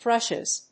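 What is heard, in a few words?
"thrushes", English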